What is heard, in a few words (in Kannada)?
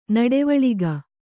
"ನುಡಿವಳಿಕೆ", Kannada